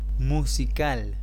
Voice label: Musical spanish